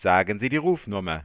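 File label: please say a number, German, Voice Sounds; Samsung SGH-i310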